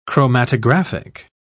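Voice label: "chromatographic", British English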